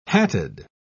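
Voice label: "hatted", English, uk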